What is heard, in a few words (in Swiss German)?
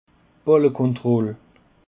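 "ballkontroll", Alsatian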